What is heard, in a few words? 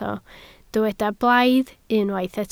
"blaidd", Welsh